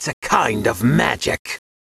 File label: Young Mage